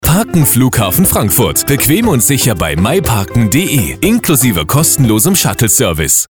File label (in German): Radiowerbung